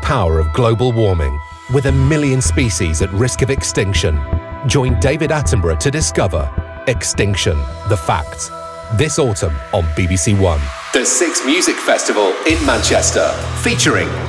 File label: TV Radio Showreel